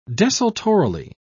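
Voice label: "desultorily", British English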